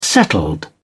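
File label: "settled", British English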